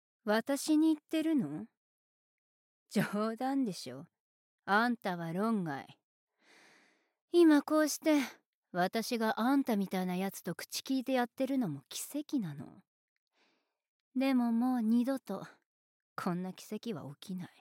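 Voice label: foreign film dubbing, Japanese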